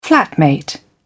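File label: "flatmate", British English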